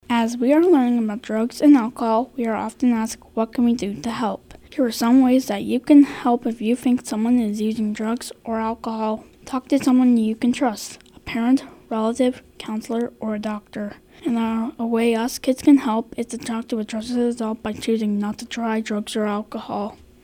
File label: Announcement